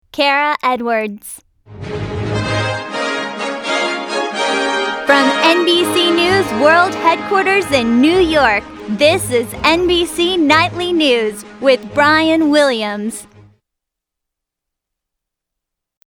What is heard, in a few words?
Nightly News Open; NBC